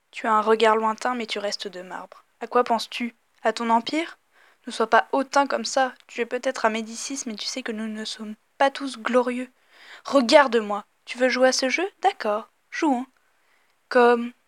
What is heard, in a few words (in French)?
enregistrement voix; statue